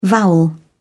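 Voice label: "vowel", British English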